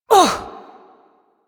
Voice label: Ability Throw, Barks; Warframe; Corpus Lich; Persona B